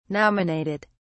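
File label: "nominated", British English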